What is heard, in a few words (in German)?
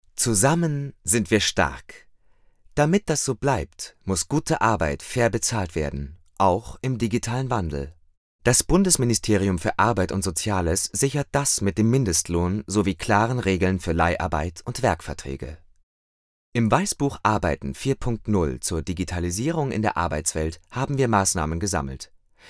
image trailer; bundesamt fuer arbeit